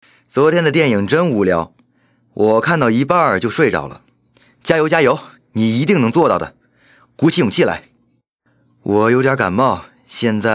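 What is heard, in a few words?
chinese mandarin male character; voice demos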